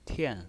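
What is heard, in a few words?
"tian", pinyin voice, fourth tone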